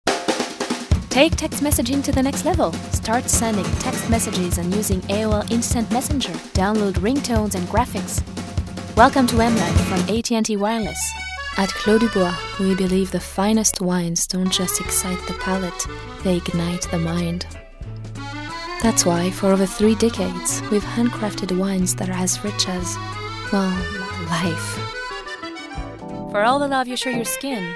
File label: English VO